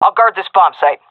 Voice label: guarding bombsite, seal fem; vo; Counter Strike Model Pack; CS:GO